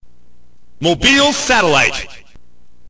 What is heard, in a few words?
narrator